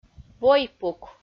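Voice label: "войпук", Komi, female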